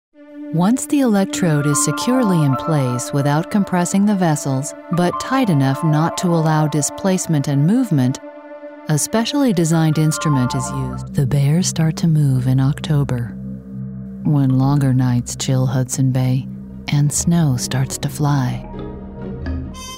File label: Narr